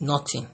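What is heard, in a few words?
"noting", Nigerian Pidgin, male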